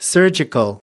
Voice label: "surgical", us

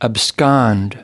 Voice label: "abscond", us

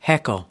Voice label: "heckle", us

